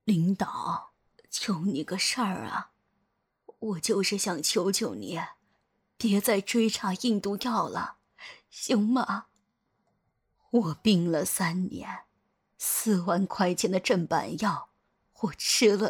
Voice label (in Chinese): 老年人大妈角色音